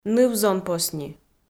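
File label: "ныв-зонпосни", Komi, female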